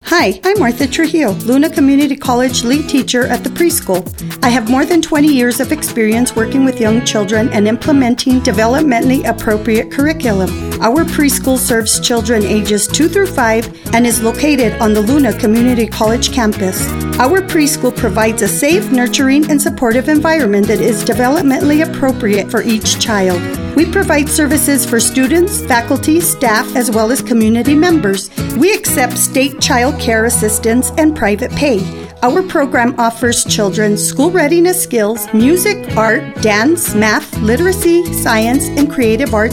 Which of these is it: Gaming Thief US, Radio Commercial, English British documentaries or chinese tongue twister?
Radio Commercial